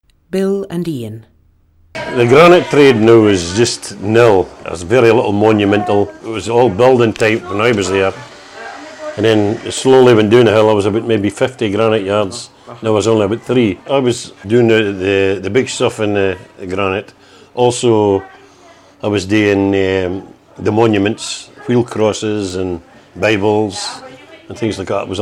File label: Dundee, scotspeak